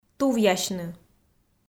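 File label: "тувъясьны", Komi, female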